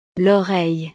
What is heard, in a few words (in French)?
"l oreille", frances; partes del cuerpo